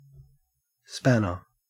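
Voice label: "spanner", Australian English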